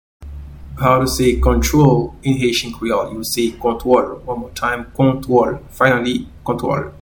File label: Control in Haitian Creole Kontwol